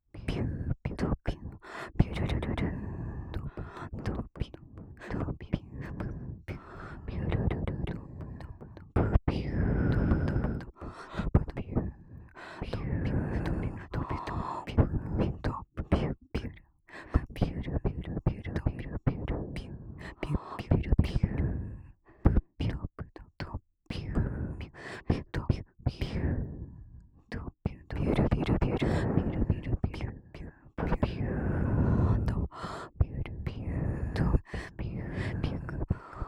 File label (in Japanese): 左右 びゅるびゅる連呼